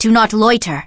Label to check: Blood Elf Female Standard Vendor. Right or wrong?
Right